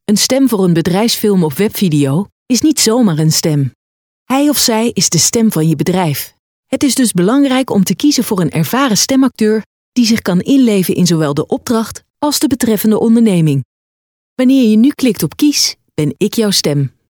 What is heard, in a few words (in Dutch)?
bedrijfsfilm